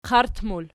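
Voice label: "qart" plural, Archi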